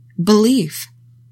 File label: "BELIEF", english; 3000 words